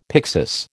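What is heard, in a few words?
"pyxis", American English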